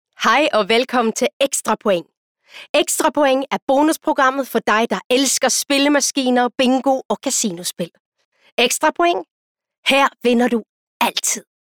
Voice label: Speaktest dansk

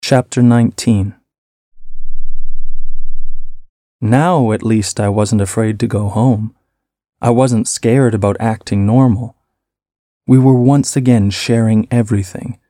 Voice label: Lydbog

